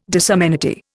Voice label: "disamenity", Indian